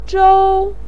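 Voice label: "zhou1", Mandarin Chinese, pinyin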